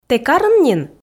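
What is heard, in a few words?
"тэ карын нин", Komi, female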